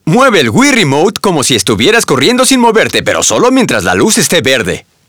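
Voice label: red green voice, Spanish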